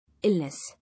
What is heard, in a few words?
"Illness", English